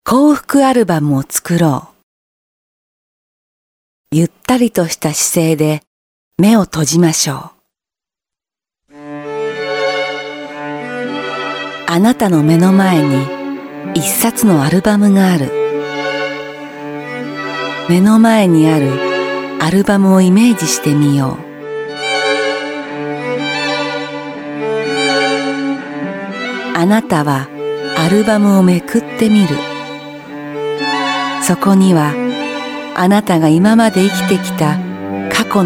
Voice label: F Japanese VO; Female